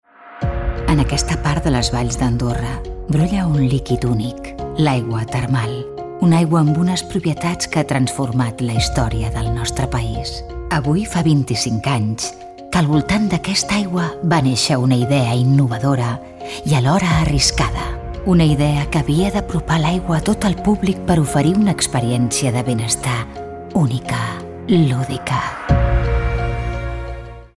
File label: female catalan water comercial; ideasonora